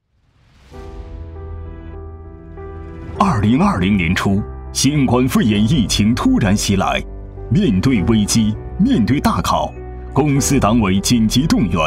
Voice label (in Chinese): 男,走心旁白,答卷汇报